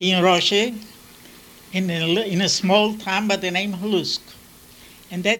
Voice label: Oral history with 92 year old white male Concord Massachusetts, american english dialect recordings